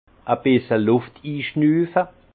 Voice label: "bisselluft", alsace; vin; aspirer un filet d’air

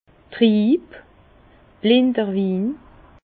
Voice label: "trieb", Bas Rhin; vin; trouble du vin

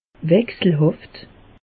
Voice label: "wechselhaft", alsace; meteo

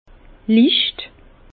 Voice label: "licht", Bas Rhin; vin; léger

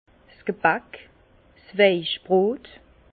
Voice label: "geback", Bas Rhin; vin; mie de pain

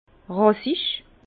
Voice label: "rassich", Bas Rhin; vin; racé

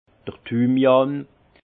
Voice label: "thymian", Alsace; vin

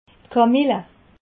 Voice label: "kamille", alsace

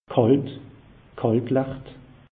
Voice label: "kalt", alsace; meteo

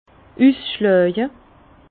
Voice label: "usschlaje", Bas Rhin; vin; débourrage

